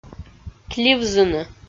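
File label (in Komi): "кливзыны", Komi, female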